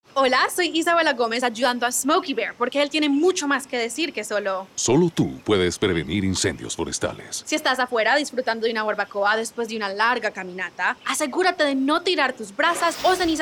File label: spanish radio; ad council smokey bear wildfire prevention psa